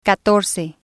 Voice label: "catorce" Spanish

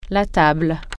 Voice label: "table", French

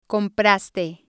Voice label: "compraste", Spanish

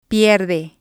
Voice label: "pierde", spanish

